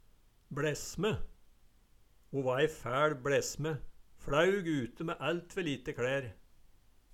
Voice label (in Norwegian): "bLesme", Numedalsmål